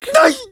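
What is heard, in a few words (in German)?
"Nein!" German; Simpsons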